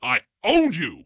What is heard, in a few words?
punk gloat owned; Dystopia; vox new